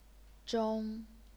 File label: "zhong1", chinese pinyin